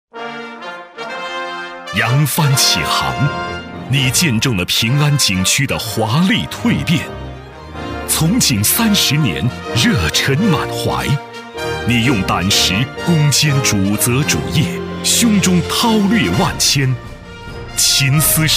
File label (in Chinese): 男 饱满 敬意 颁奖类 优秀民警颁奖词